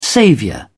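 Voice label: "saviour", English, British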